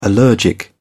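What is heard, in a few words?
"allergic", British English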